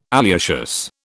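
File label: "alliaceous", British English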